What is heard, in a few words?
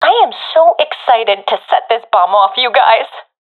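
round start, professional female; vo; Counter Strike Model Pack; CS:GO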